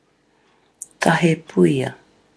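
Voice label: "tahepuia", Maori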